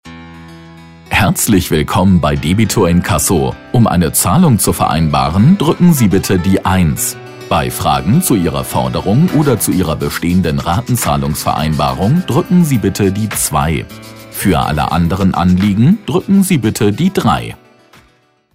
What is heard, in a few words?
IVR